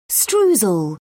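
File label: "streusel", English, British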